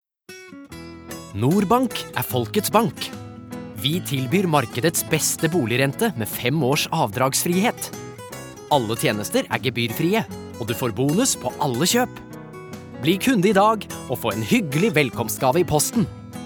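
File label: Norwegian commercial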